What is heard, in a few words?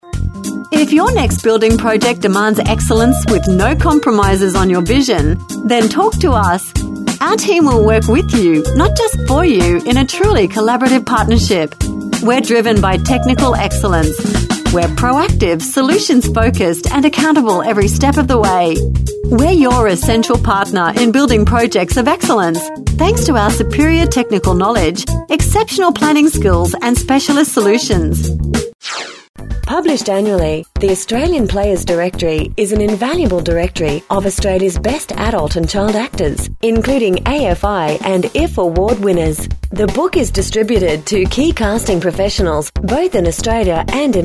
on hold